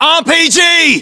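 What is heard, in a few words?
threat rpg, battlechatter, British; localized english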